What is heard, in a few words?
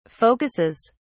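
"focuses", British English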